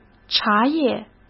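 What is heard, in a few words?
"茶叶", Mandarin Chinese; chinese-flashcards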